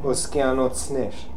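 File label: "Oskianotsner", pronunciations; Fourth Way; Beelzebub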